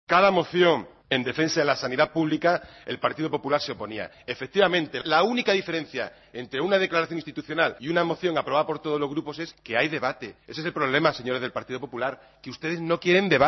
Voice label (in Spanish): noticias